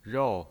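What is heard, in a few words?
"rou", Mandarin Chinese pinyin voice, fourth tone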